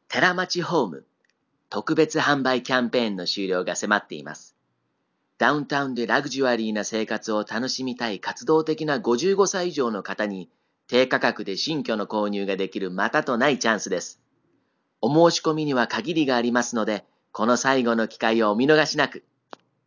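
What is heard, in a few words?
Japanese, commercial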